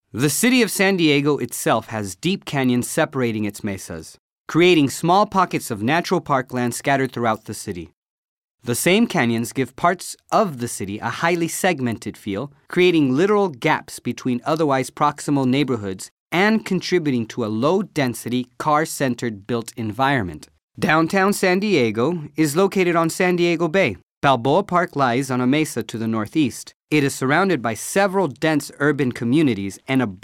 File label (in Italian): inglese usa